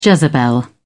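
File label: "jezebel", British English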